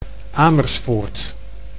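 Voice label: "Amersfoort", Dutch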